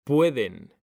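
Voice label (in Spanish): "PUEDEN", Spanish; mekanta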